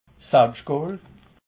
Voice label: "selbschtgool", alsace

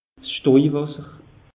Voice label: "stoijwasser", alsace; meteo

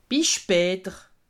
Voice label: "bis spater", alsace

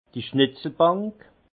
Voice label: "schnetzelbank", alsace; vin; chevalet du tonnelier

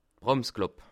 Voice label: "bramsklapp", alsace

